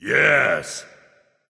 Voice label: Emote Yes; Smite; Siege Engine Xing Tian